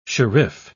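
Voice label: "sherif", British English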